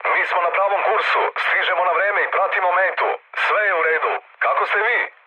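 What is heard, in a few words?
Serbian chat; War Thunder; speech srb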